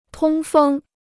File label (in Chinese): "通风", Chinese